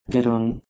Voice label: "gron" PIE